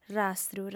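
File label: "rastru", female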